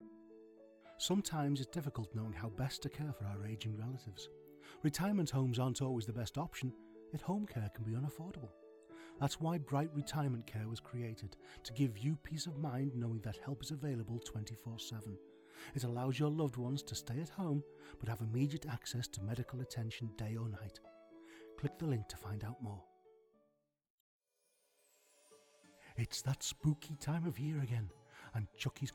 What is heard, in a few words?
COMMERCIALS